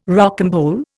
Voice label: "rocambole", Indian

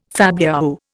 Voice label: "fabliau", Indian

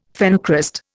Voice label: "phenocryst", Indian; Dictionary

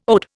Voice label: "ode", Indian

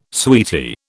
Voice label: "sweetie", British

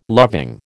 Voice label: "loving", American

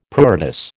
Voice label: "pruritus", American

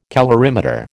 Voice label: "calorimeter", American

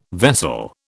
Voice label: "vessel", British

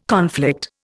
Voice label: "conflict", Indian

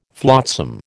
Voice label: "flotsam", American

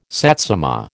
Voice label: "satsuma", American

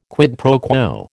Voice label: "quid pro quo", American

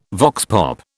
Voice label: "vox pop", British

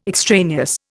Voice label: "extraneous", Indian

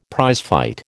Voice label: "prizefight", American

